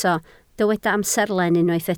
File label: "amserlen", Welsh